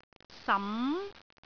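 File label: "sam2", Cantonese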